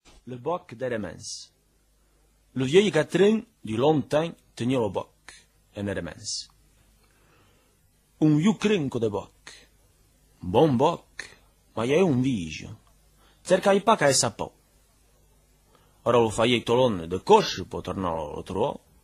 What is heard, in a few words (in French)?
Le bouc d Heremence patois d Heremence; patois valaisans